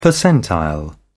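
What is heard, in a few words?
"percentile", British English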